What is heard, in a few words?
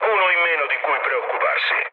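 Italian player killed enemy mood low, speech; War Thunder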